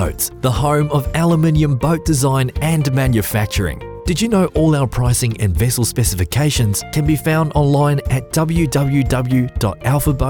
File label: IVR Phone message, en-AU